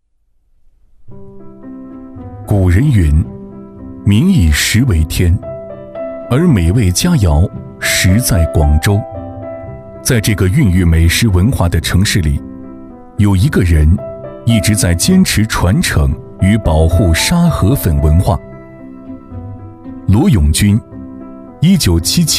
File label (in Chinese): 男 人物专题 非遗解说; 激情有力; 高端大气